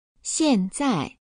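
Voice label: "xianzai", Chinese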